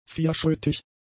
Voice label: "vierschrötig", German; adjectives